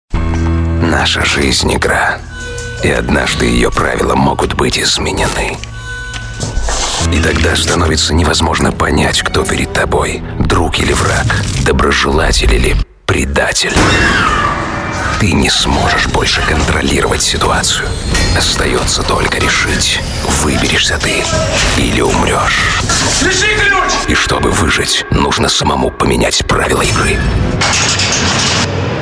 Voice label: Rusiškas balsas, vyras

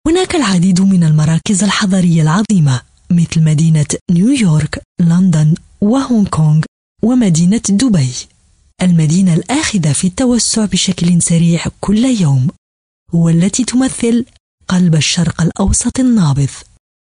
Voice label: (MOT) Arabų balsas, moteris

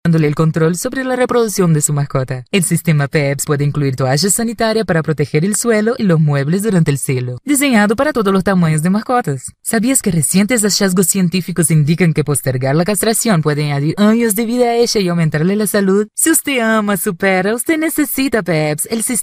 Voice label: MOT Portugalu balsas, moteris

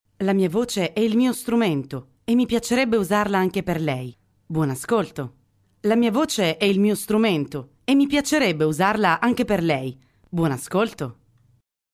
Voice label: (MOT) Italu balsas; moteris

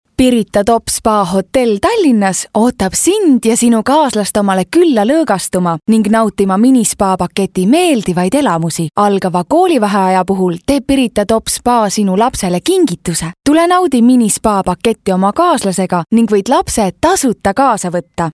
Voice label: (MOT) Estų balsas

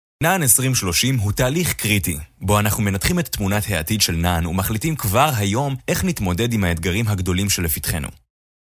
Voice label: (VYR) Hebraju balsas; vyras

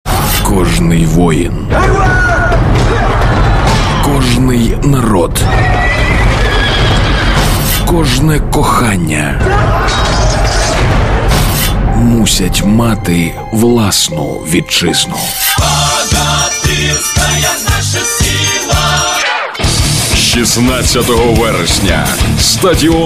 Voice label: Ukrainieciu balsas, vyras

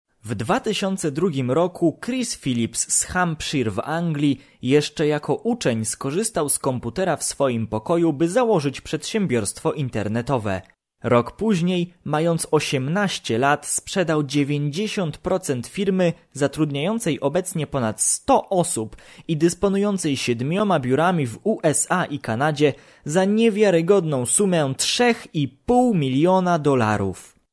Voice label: VYR Lenku balsas, vyras

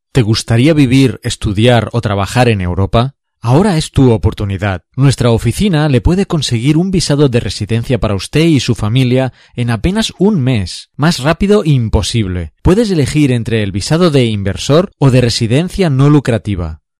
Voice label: Ispanu balsas, vyras

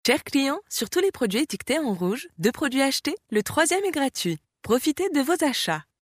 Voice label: (MOT) Prancuzu balsas; moteris

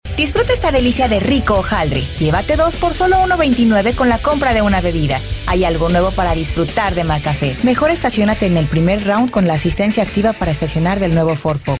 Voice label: (MOT) Ispanu balsas

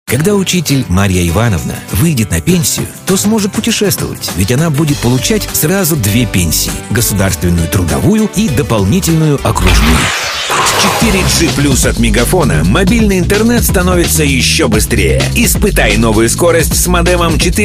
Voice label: Rusiškas balsas, vyras; rusu

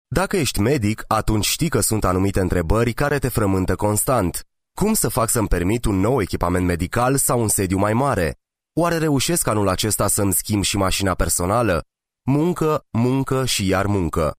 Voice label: (VYR) Rumunu balsas; vyras

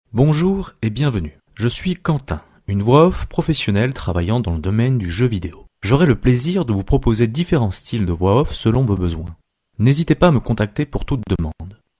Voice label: VYR Prancuzu balsas, vyras

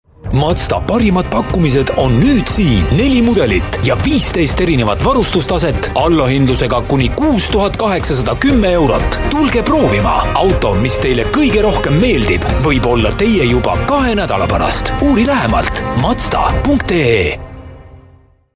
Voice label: VYR Estų balsas, vyras

